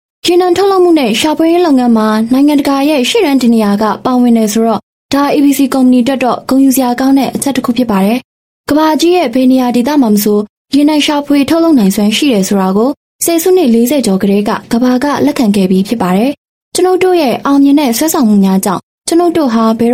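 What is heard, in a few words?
Burmese Female Marketing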